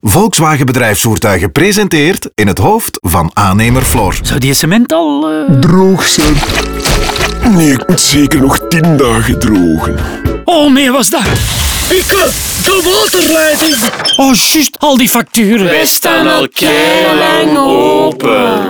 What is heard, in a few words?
Radiospot; Volkswagen Bedrijfsvoertuigen; saloncampagne; voltage